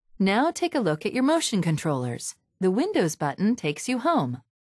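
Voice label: windows button, voiceovers, US English; retaildemo; Mixed Reality Learning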